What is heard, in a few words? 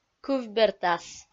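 "кывбертас" Komi, female; kpv audio dict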